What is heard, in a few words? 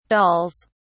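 "dolls", British English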